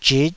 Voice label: "zhit5", taiwanese

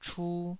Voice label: "chu2", taiwanese

